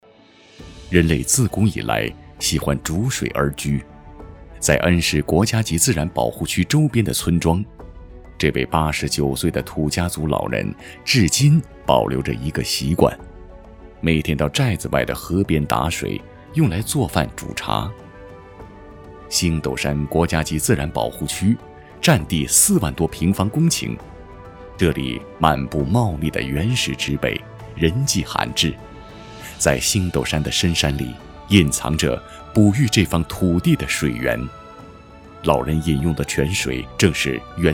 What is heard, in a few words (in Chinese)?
宣传片 旅游 自然景区宣传片 厚重, 男